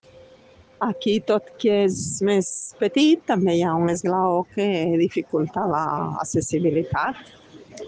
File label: Voice message